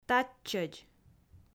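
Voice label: "татчӧдз", Komi, female; audio dict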